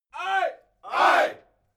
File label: military shout aye